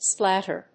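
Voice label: "splatter", English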